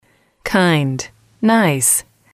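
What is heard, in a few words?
kind nice, English, female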